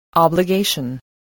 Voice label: "obligation", voice, spelling